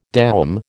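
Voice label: "doum", American English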